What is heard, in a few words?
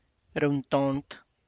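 tlacochahuaya, Zapotec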